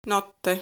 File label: "Notte", Italian